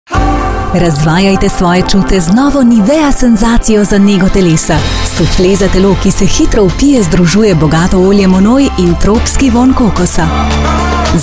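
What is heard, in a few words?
Slovenian, Commercial; GoLocalise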